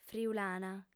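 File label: "friulana", female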